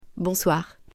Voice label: "BONSOIR", French, female; greetings salutations